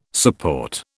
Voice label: "support", British